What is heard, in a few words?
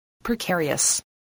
"precarious", voice, spelling